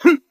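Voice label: "huh", vocal sample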